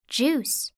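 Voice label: "juice", English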